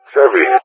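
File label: "Chevy", English speech; CallSign; Allied Flight; DCS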